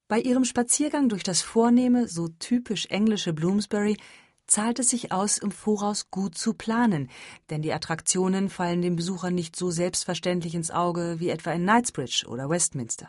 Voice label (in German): Audioguide Reise